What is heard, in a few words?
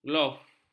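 "glof", Malay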